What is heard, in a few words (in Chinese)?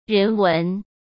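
"人文", Mandarin Chinese; chinese flashcards; hsk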